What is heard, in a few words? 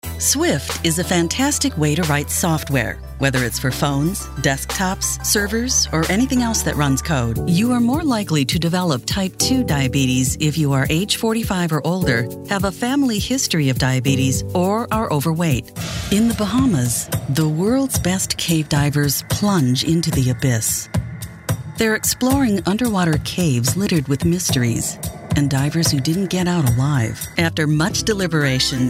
narrative, voiceover